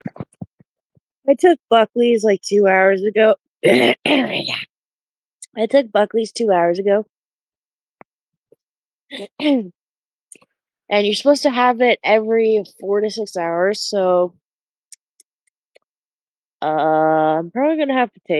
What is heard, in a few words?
voice message